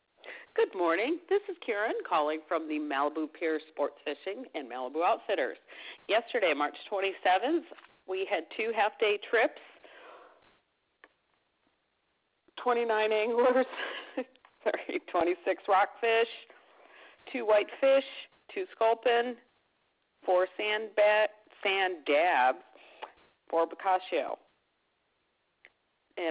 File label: voice message